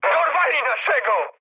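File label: Polish aircraft destroyed mood high; speech; War Thunder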